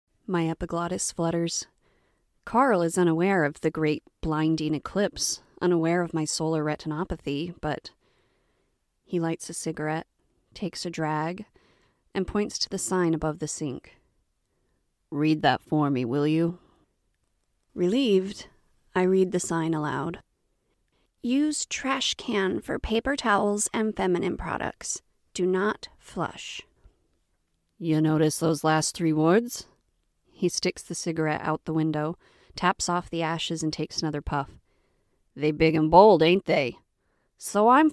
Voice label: audiobook

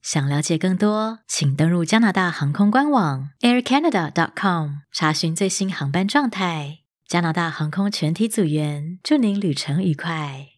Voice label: corporate; female voice in Mandarin Chinese

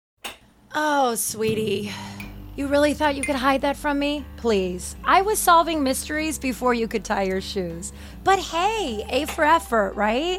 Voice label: Animation

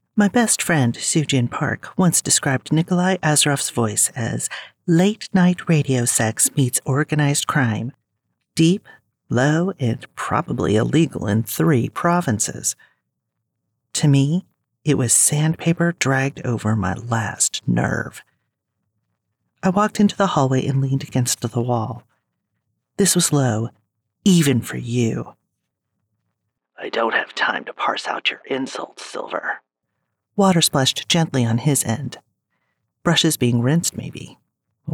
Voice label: Snarky Phone Sci fi Fantasy

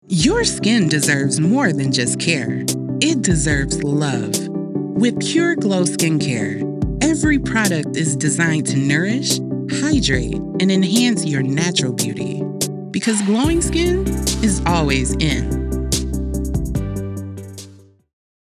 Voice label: Skin Care Ad Soothing Inspirational Gentle; Verbal VOasis

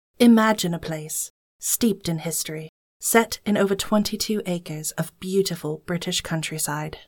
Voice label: Private School ad audition

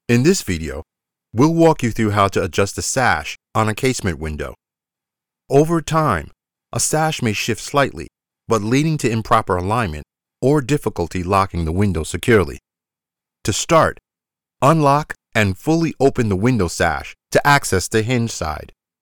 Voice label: Instructional Videos Window & door Installation

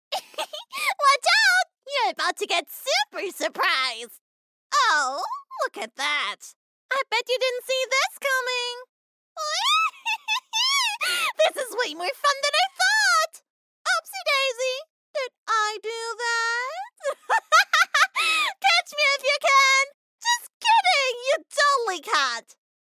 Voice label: Game Energetic high

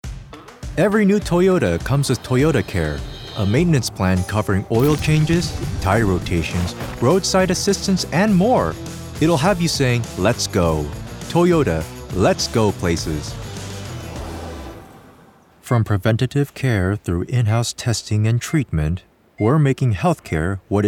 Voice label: Commercial

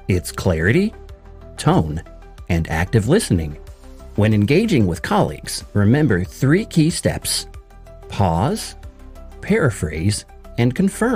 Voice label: Corporate Training, Voice Actor

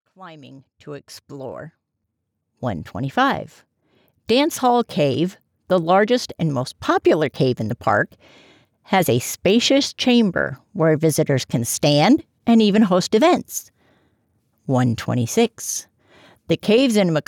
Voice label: Audiobook

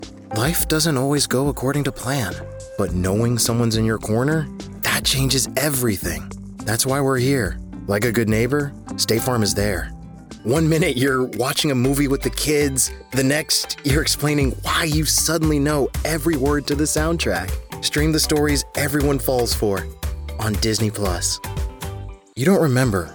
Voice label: Commercial VO

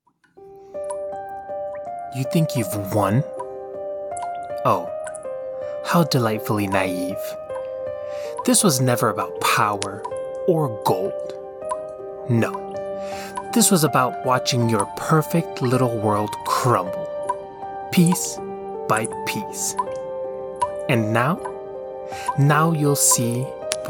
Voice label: Character

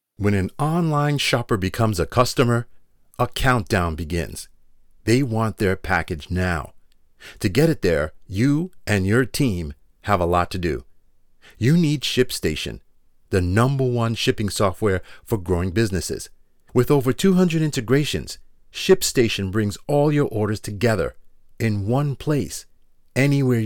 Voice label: Animated Explainer

